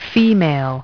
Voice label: female